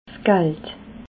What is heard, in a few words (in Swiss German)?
"gald", alsace